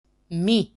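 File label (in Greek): "mu", Greek; μυ μι; [mi]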